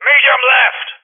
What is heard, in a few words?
Vocal Medium Left; Rally Champ